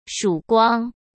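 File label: "曙光", Mandarin Chinese; chinese-flashcards; hsk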